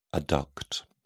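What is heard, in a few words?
"adduct", British English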